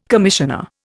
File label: "commissioner", Indian